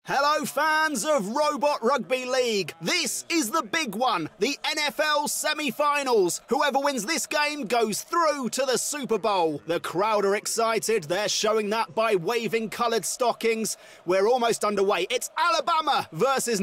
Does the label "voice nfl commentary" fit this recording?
Yes